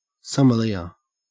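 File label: "sommelier", Australian English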